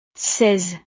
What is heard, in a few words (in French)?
"seize", frances